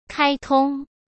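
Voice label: "开通", Mandarin Chinese; hsk; chinese-flashcards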